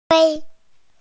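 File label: "turtle", Mandarin Chinese, female; wu1gui1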